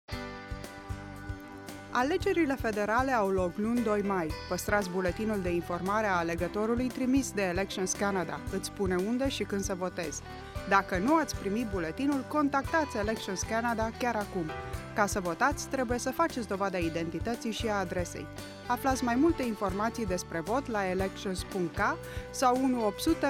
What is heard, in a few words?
romanian, radio ad